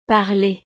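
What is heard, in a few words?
"parler", French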